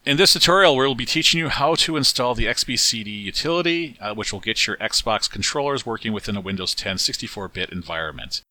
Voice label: Narrator